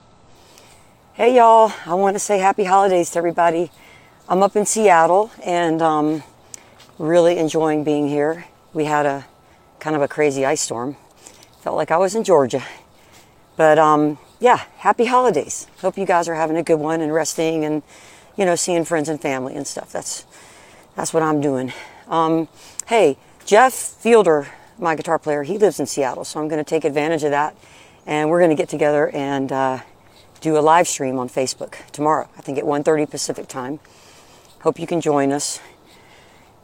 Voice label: promo

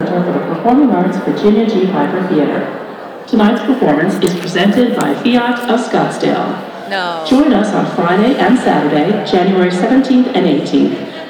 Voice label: announcements and warmup; scottsdale center for the performing arts - scottsd

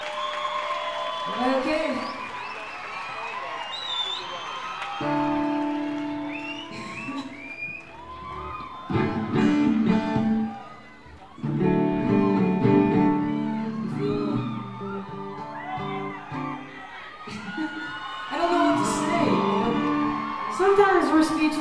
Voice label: talking with crowd; universal amphitheatre; los angeles, california

